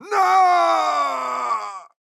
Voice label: Pain Death